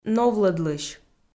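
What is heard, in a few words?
"новлӧдлысь", Komi, female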